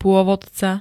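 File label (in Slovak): "pôvodca", Slovak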